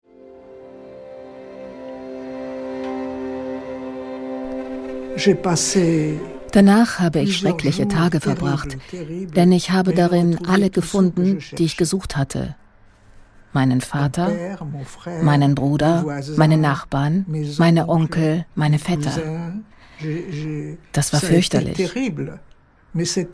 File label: voice over; serge klarsfeld